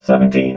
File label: "seventeen", robot